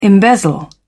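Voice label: "embezzle", British English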